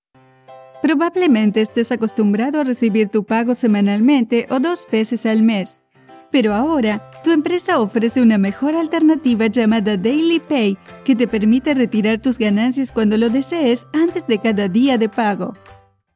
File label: SPANISH